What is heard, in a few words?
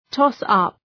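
"toss-up", English; {‘tɒs,ʌp, ‘tɔ:s,ʌp}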